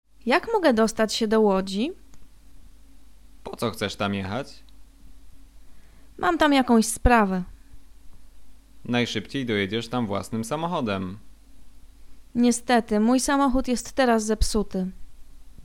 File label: conversation; lektorek; firstyear